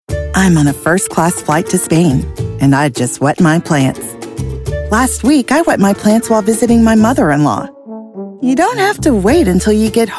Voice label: Commercial